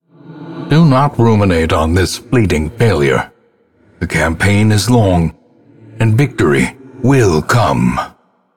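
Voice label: narrator bad quest fail; ancestor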